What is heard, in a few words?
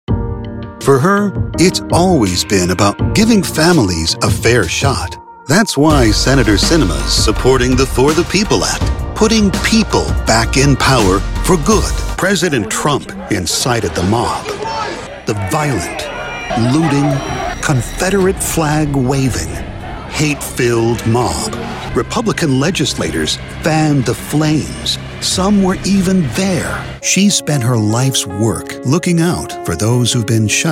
POLITICAL MODERATE TO PROGRESSIVE, voiceover